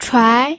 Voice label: "chuai2", pinyin